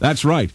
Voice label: that's right male